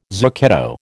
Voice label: "zucchetto", American

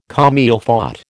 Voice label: "comme il faut", American

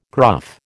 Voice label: "gruff", American

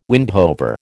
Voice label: "windhover", American

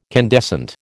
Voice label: "candescent", American

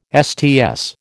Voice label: "Sts", American

